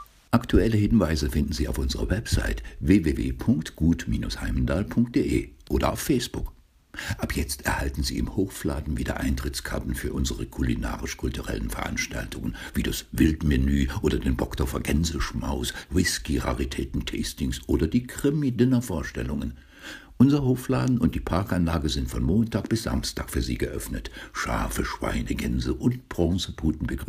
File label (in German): Schnelle Ansage